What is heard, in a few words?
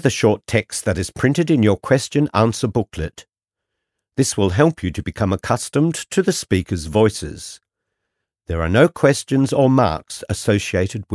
Italian Background Language